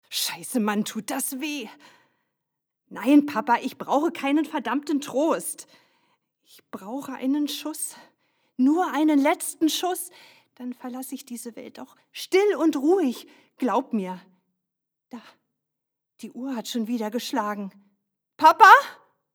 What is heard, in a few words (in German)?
verzweiflung